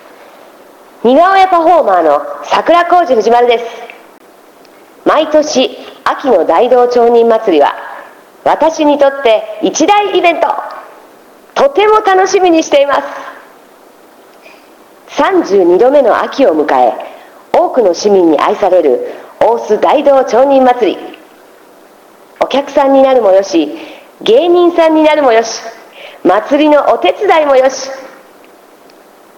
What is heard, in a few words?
narrator